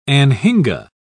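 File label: "anhinga", British English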